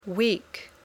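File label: "weak", English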